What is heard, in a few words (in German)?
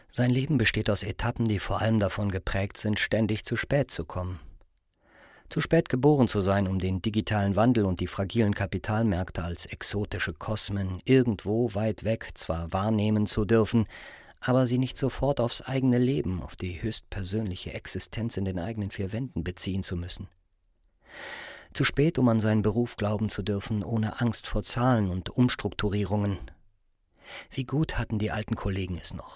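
Hörbuch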